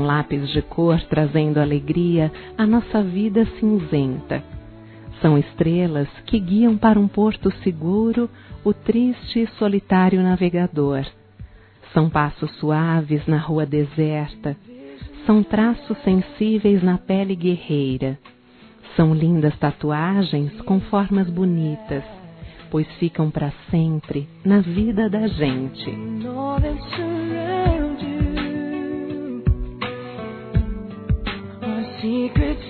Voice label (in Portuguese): Aniversário Amigos Feminino, Telemensagens; Aniversários; Aniversário de Amigos